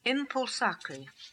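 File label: "Impulsakri", pronunciations; Fourth Way; Beelzebub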